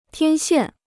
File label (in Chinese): "天线", Chinese